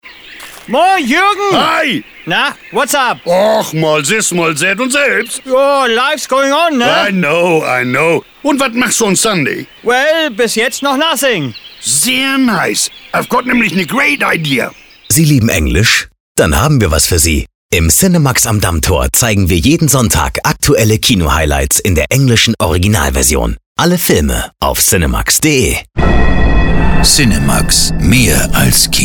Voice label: Funkspot

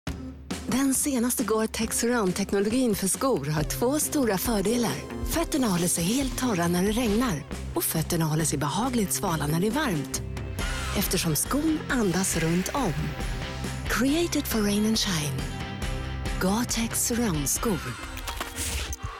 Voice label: SWE Spot, Sprecher

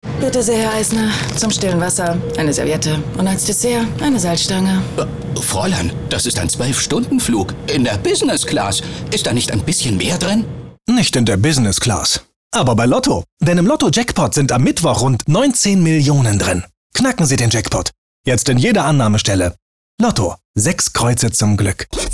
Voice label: Werbung; Westlotto

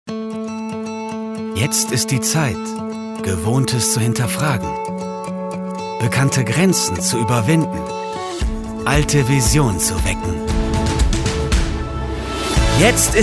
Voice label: Werbung; Inviniti

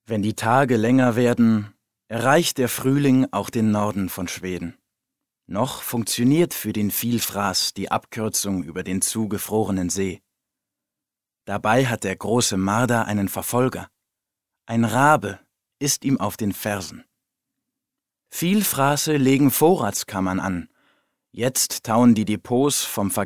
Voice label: Dokumentation

